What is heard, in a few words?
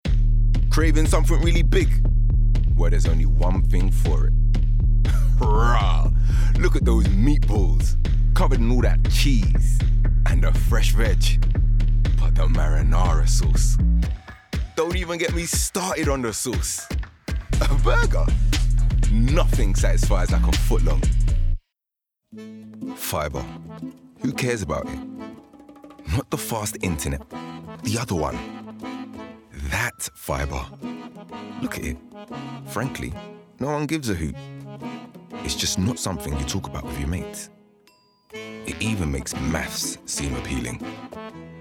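Commercial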